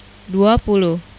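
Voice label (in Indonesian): "duapuluh", Indonesian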